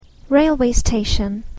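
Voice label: "railway station", English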